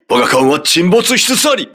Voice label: hull breach, voice message, crew ships japanese